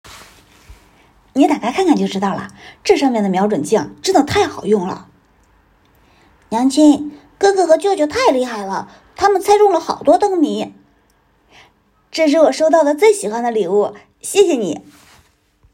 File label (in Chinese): 喜悦